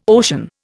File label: "ocean", Indian